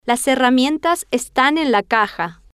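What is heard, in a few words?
las herramientas estan en la caja, Spanish, female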